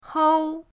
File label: "hou1", pinyin